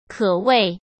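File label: "可谓", Mandarin Chinese; hsk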